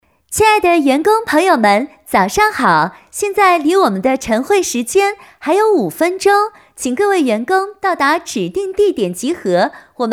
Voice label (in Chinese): 广场广播; 吾悦广场